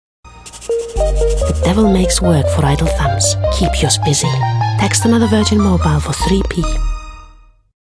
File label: English Foreign English Accent